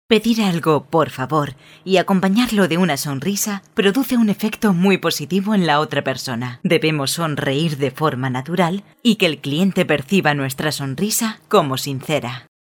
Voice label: SPANISH Female